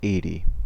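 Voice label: "eighty", American English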